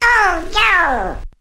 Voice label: "oh no" alien; ooh ahh